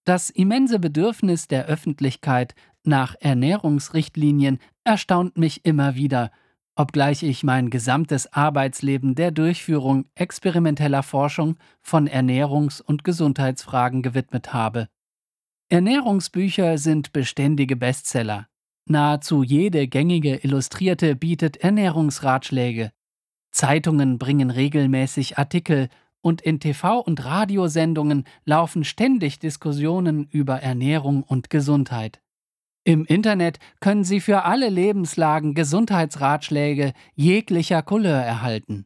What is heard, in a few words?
german audiobooks